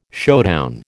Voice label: "showdown", American

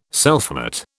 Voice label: "selfmate", British

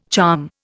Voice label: "charm", Indian

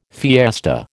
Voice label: "fiesta", American

